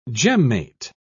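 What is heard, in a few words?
"gemmate", British English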